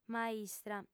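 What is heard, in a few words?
"maistra", female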